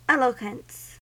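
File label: "eloquence", US English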